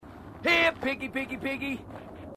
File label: marine, marine aussie; h2dialogue